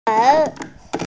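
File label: "hao3", Mandarin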